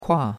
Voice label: "kua4", chinois; Cours-de-Chinois

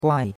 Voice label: "guai4", chinois; Cours-de-Chinois

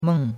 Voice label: "meng4", chinois; Cours-de-Chinois

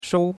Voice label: "shou1", chinois; Cours-de-Chinois

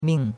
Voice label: "ming4", chinois; Cours-de-Chinois

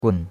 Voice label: "gun3", chinois; Cours-de-Chinois

